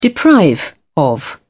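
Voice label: "deprive", English, British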